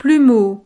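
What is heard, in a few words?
"plumeau", French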